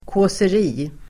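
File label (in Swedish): "kåseri", Swedish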